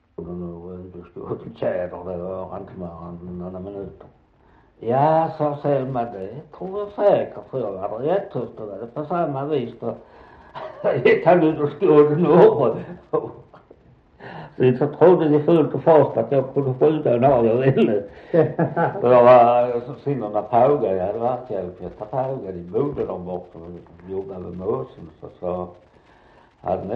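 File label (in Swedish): Västra Torup Skåne, dialekter; Folkminnen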